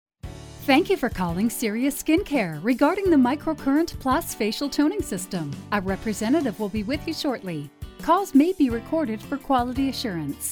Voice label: Phone